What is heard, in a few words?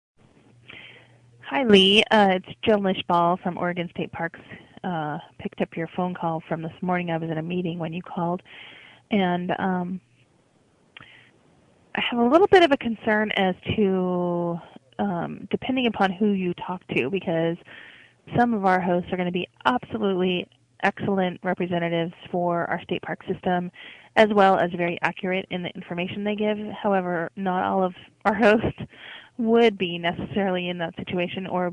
voicemail